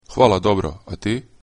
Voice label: fine, croatian

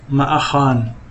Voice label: i dont know, somali; Ma aqaan

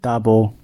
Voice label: goodbye, gwentian

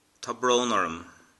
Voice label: "sorry", Irish

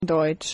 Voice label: german; Deutsch

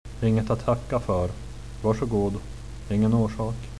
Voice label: thanks reply, swedish

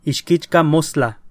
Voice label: goodbye nahuatl

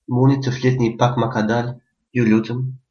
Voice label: slowly, albanian gheg

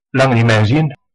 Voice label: long time no see, west flemish

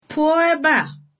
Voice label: go away, igbo

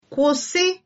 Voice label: stop, igbo

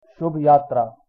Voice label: bon voyage hindi

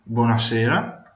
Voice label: good evening, Venetian

